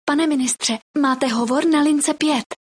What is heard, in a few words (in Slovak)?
sms hlásky cz